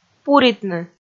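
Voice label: "пуритны", Komi, female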